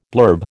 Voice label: "blurb", American

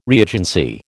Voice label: "reagency", American

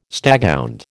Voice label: "staghound", American

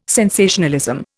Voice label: "sensationalism", Indian

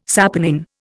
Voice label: "saponin", Indian

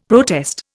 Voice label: "protest", Indian; Dictionary

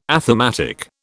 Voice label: "athematic", British

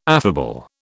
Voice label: "affable", British

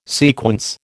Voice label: "sequence", American

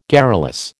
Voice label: "garrulous", American

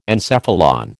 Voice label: "encephalon", American

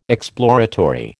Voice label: "exploratory", American

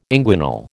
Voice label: "inguinal", American

